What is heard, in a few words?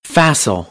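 "facile", American English; AmericanBritish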